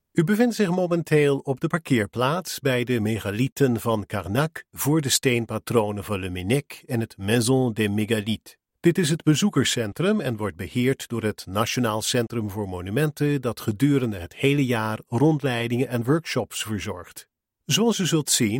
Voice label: Dutch Audio Tours